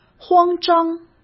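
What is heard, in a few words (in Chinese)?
"慌张", Mandarin; hsk; chinese-flashcards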